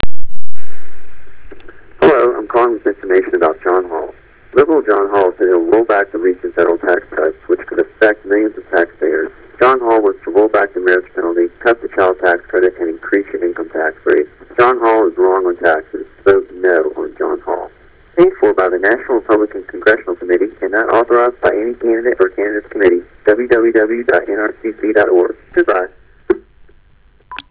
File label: anti Hall ad; NRCC; robo attack call